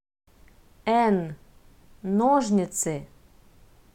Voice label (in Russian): "н", Russian